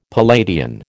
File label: "Palladian", American